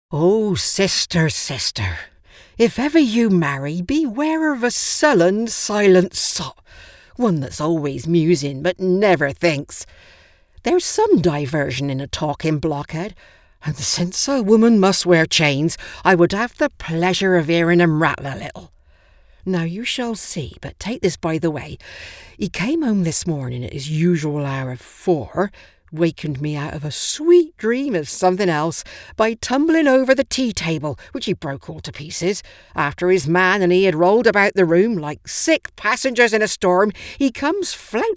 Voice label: female english west country accent audiobook narration; Devine Voices